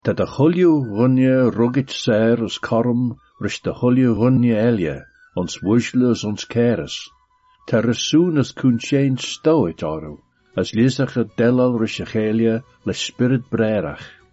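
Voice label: Manx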